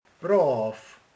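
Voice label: "derék", Hungarian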